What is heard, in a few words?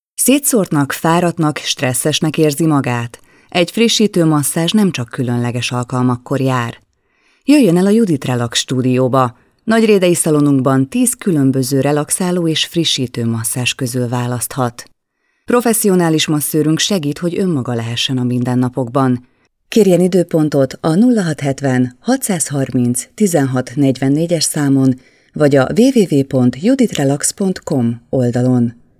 Female, Hungarian